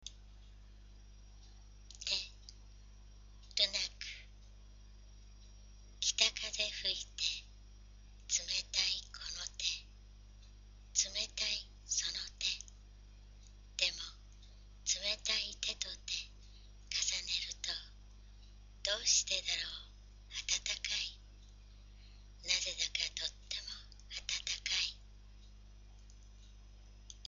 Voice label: poem read